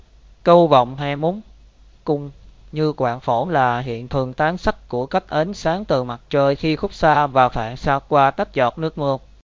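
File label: speaker, American English; piper-voices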